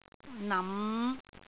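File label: "nam5", Cantonese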